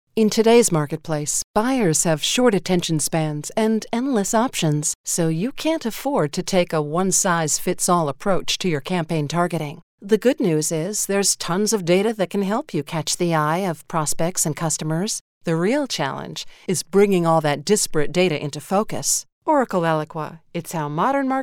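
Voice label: english us female narration; voice demos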